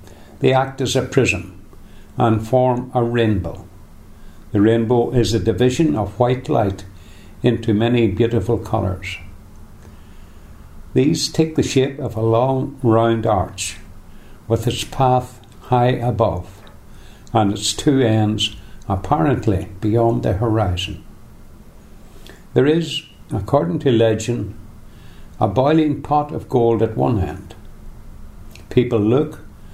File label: passage, dialects ni